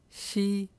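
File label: "shi", syllable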